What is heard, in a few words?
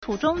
"tu2zhong1", Chinese